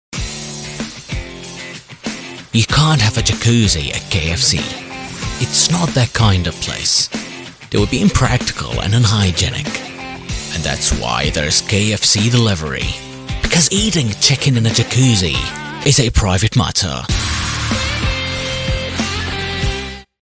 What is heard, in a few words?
UK Ad; KFC